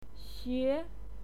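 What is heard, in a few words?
"xue2", Mandarin Chinese pinyin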